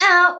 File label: Voice prince damaged